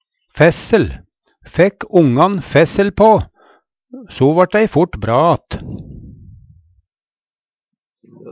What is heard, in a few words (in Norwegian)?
fæssel, Numedalsmål; Forbinding, helst av tøy